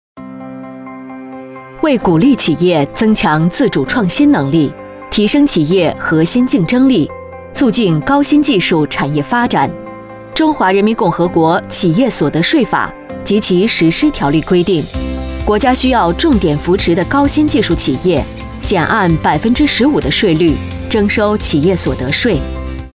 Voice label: 文件汇报正式沉稳; 重庆科技企业奖励办法

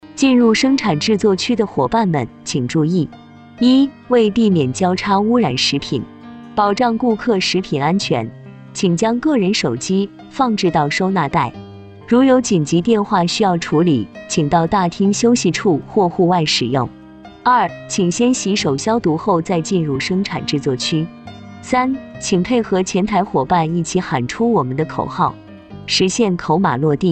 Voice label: 餐厅配音钢琴曲

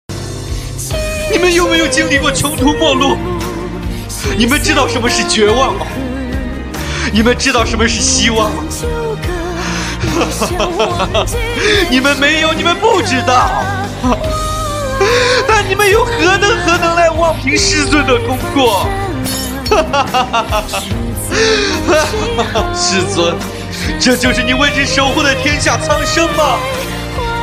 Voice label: 哭戏试音

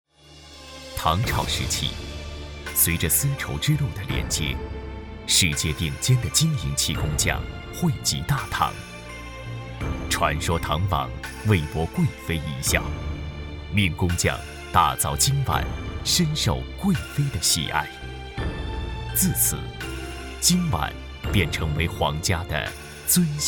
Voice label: 电视广告

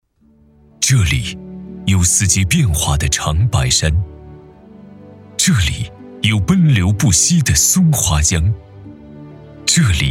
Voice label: 专题汇报类; 国家电网吉林分公司

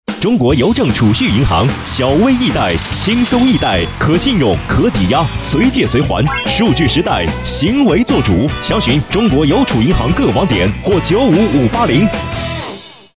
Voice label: 银行广告配音; 邮储银行广告配音

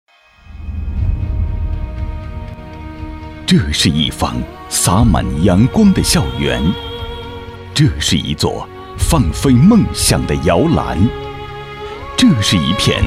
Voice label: 专题学校浑厚大气

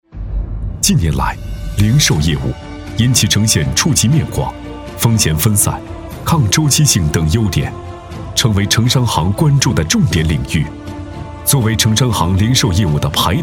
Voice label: 旁白稿; 江苏银行旁白稿

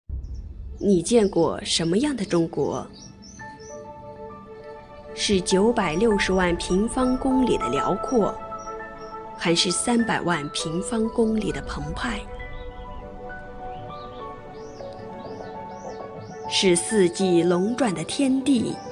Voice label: 纪录片

